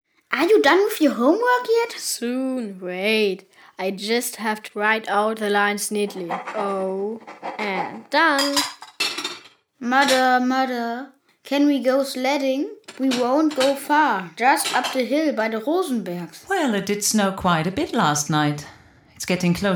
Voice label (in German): Kinderzimmer Hörspiel; Freizeit